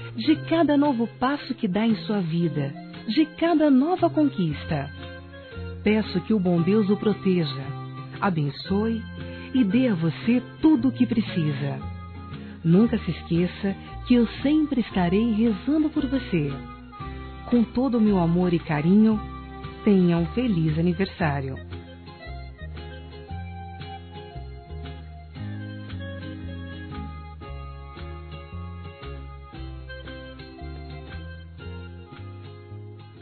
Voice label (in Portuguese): NETO F, Telemensagens, Aniversários, Fem; Aniv Familiar